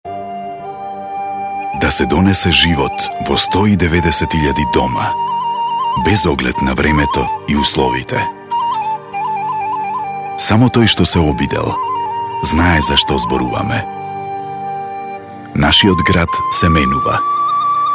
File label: radio